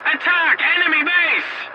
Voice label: voice message attack enemy base, english; War Thunder